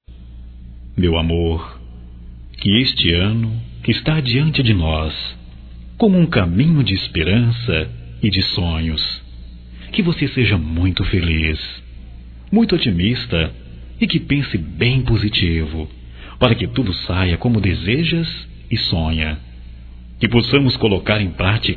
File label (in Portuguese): Natal geral, masc